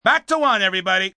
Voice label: Back To One, Film, movie, direction, assistant director, male: 'Back to 1 everybody'; human voice speaking